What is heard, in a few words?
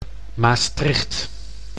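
"Maastricht", Dutch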